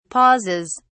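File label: "pauses", British English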